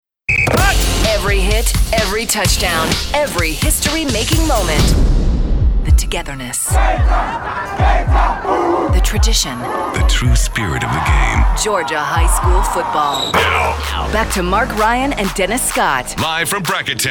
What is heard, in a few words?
radio sports, radio imaging